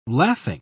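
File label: "lathing", British English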